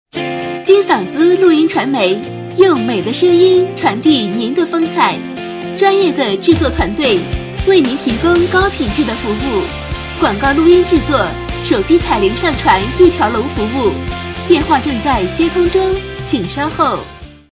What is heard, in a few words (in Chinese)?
彩铃, 国语女声配音; 金嗓子录音传媒